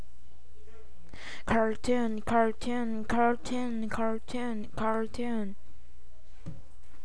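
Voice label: "cartoon", spoken word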